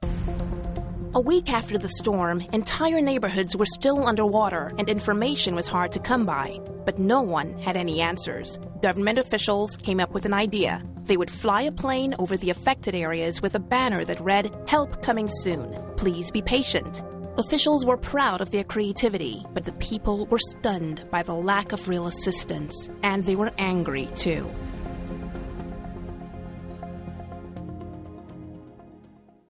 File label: Documentary